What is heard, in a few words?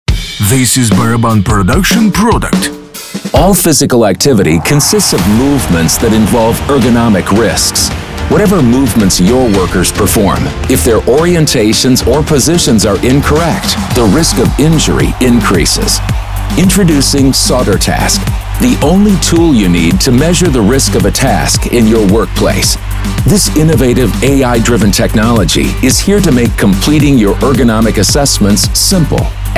English Language; BarabanProduction; SoterTask